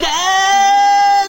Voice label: "dah", kid

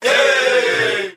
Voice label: "hey", group of men

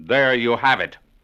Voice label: "there you have it", angry announcer; Voice

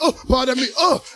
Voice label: "uh pardon me uh", Voice; ooh ahh; uh um

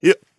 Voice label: "yip", voice; ooh ahh

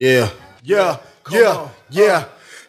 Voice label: "yeah yo yo yeah", voice; ooh ahh

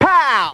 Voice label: "pow", Voice

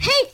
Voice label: "hey", kid objecting

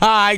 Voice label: "all right", aight

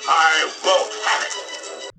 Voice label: "i won't have it", male; Voice

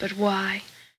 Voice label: female; but why

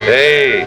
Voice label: "hey", Voice

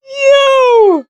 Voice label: "yo", voice

